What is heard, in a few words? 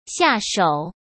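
"下手", Mandarin Chinese; hsk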